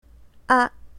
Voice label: "aak1", cantonese